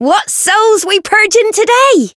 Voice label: Voice variable chant; ilulu